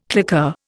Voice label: "claqueur", Indian